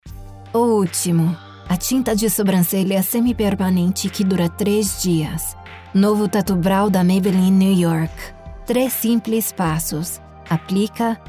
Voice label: Portuguese Commercial; Maybelline